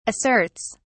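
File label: "asserts", British English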